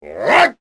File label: Vox Attack; Jin; kingsraid